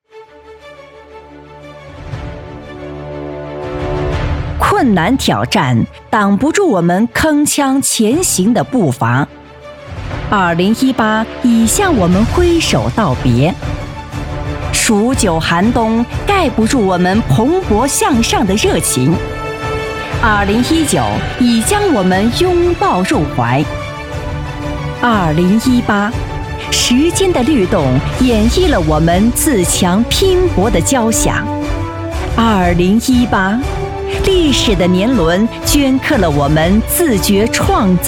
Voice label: 女, 集团颁奖

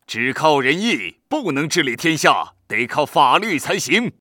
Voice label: 男 游戏角色配音

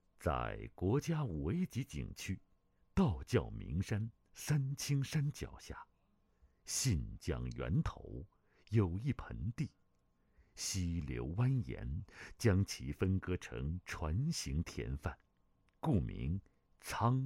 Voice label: 男, 公益品牌宣传; 仓畈村爱心基金会

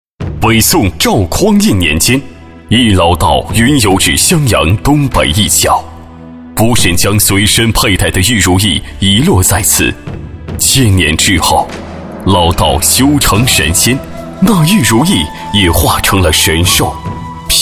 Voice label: 男 广告 大气豪迈; 貔貅

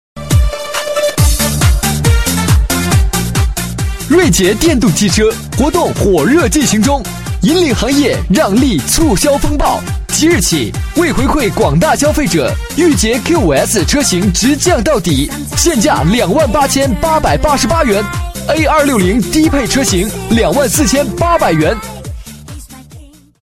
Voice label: 促销广告 电动汽车 激情青春, 国语男声配音; 飞碟说广告

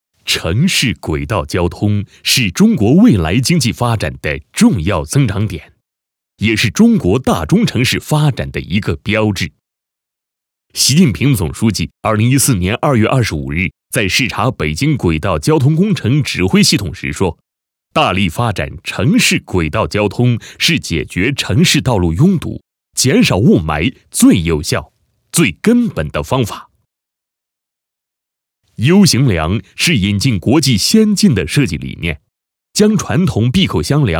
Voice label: 男-企业-城市轨道交通, 配音案例; 十四局集团

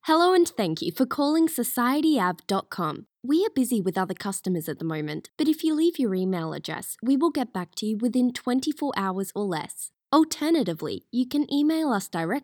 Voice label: 外籍英女-语音留言-讲解风格

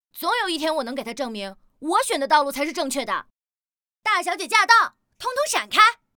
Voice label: 模仿, 女, 爽快

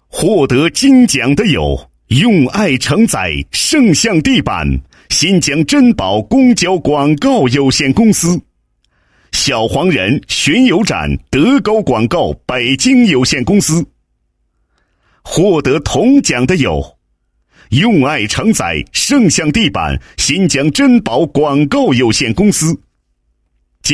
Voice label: 男 颁奖, 国语男声配音, 浑厚; 公交广告颁奖; 浑厚专题

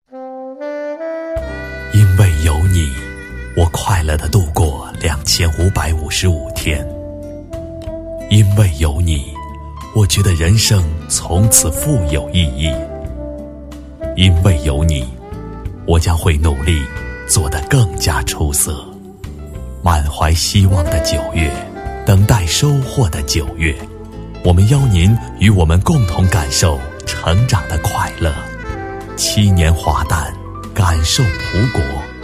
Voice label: 男40 抒情广告, 国语男声配音

